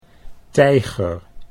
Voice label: "tijger", Dutch